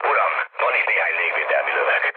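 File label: Hungarian damaged aa mood low, speech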